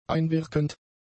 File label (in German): "einwirkend", german; adjectives